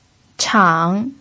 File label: "chang3", pinyin